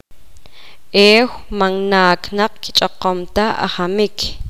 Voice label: poqomam; ayer los perros no estaban corriendo